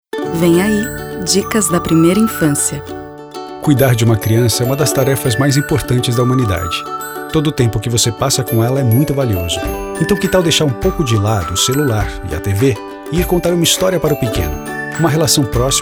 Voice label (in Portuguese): Spot; FMCV Tempo Para Estar Com a Criança